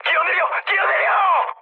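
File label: Korean bailout mood high, speech; War Thunder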